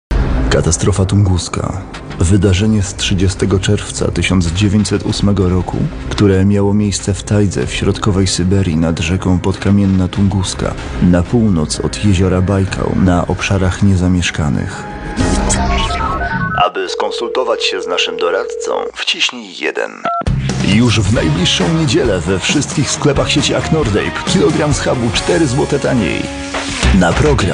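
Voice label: Polish, male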